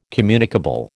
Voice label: "communicable", American